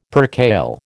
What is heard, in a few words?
"percale", American